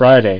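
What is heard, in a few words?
"Friday", spoken; Preschool Primer Sounds I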